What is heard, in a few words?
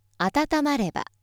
female